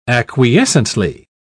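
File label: "acquiescently", British English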